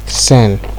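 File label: "send", Nigerian Pidgin, male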